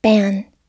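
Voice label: "ban", English; oxford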